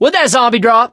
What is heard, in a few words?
fallen survivor, survivor voice; Left 4 Dead 2; Source Engine; video games